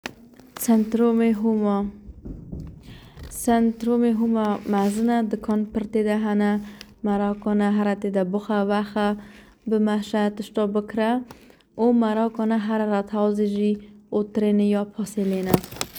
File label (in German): kurdisch